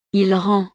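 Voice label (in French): "il rend", French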